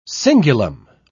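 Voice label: "cingulum", British English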